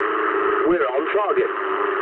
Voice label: eng near waypoint mood low, speech eng

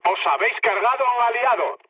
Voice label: player killed ally, mood low, speech; War Thunder

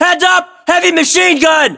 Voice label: US threat mg, battlechatter, english

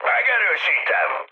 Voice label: player killed enemy, mood high, speech hun; War Thunder

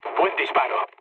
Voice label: player killed enemy, mood low, speech; War Thunder

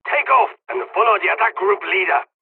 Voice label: first wave takeoff, dialogs turkish; War Thunder

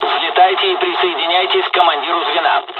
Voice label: dialogs russian; midway us; takeoff; War Thunder

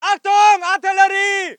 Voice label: voice ger infantry

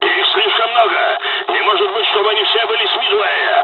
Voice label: dialog, dialogs russian; War Thunder

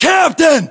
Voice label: rank captain, us

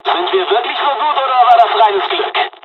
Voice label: dialog, dialogs german; War Thunder; midway

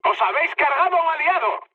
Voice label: player killed ally, mood high, speech

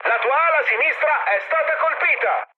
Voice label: left wing mood med, speech it; War Thunder

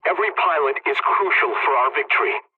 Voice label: pilot mission start, english; War Thunder

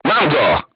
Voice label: voice message direct hit, crew tanks polish

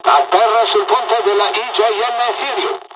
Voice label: landing, dialogs italian; midway jpn; War Thunder

